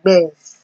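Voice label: "gbes", Nigerian Pidgin, female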